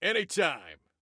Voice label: Respond Any Time, Soldier Male

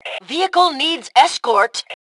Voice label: Need Escort, Female; T2 Female 02

